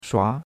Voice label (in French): "shua2", chinois; Cours-de-Chinois